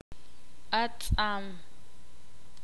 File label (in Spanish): "sal", ixil